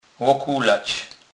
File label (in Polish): "lokulac", kurpiow